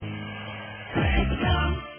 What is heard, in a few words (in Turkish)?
reklam